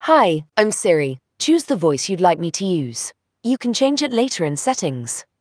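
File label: British English; iOS 26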